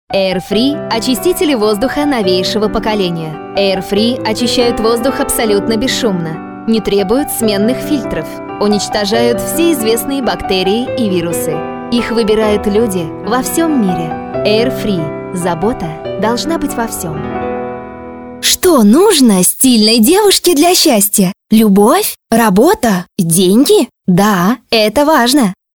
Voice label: COMMERCIALS